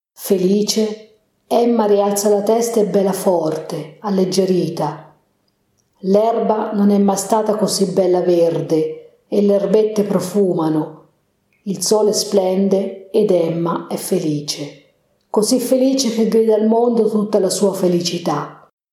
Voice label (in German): italienisch